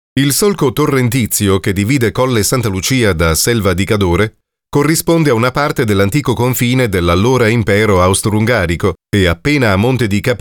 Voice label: italian documentaries